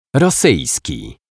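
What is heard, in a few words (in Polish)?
"rosyjski", Polish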